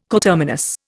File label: "coterminous", Indian